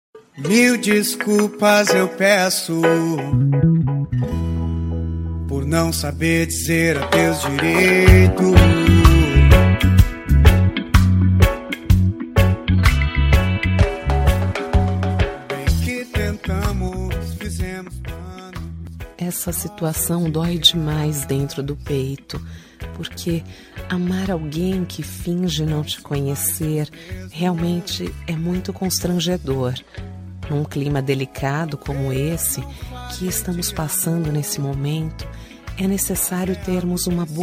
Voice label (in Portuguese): reconciliacao fem; Reconciliação – Voz Feminina